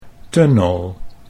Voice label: "tunnel", Dutch